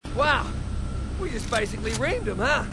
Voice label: marine, aussie; h3dialogue